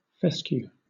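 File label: "fescue", British English; pronunciations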